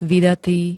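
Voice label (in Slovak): "vydatý", Slovak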